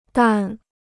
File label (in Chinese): "淡", Chinese